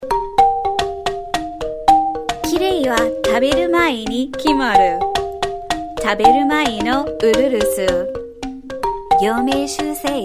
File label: Japanese; USA